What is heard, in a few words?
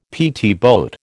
"PT boat", American